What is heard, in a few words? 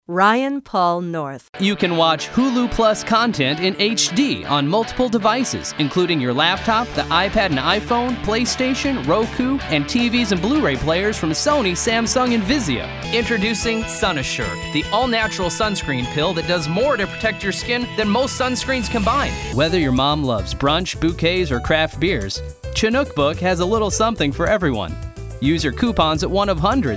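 Commercial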